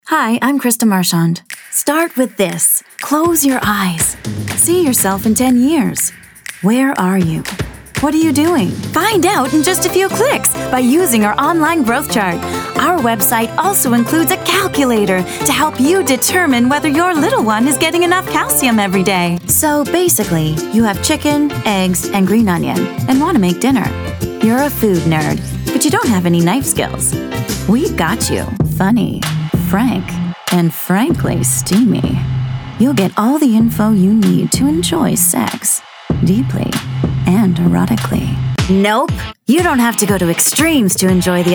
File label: Commercial